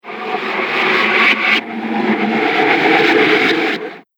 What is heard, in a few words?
Horror You Are Possessed; Scary Soundscape Sound Effects